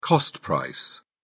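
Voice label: "cost-price", British English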